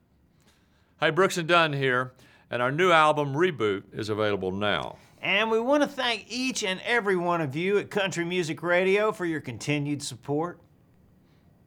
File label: Radio Liner Thanking Country Music Radio